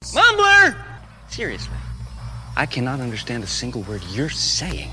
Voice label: mumbler